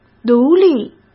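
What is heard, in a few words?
"独立", Mandarin Chinese; chinese-flashcards; hsk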